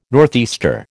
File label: "northeaster", American